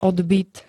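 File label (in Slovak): "odbyt", Slovak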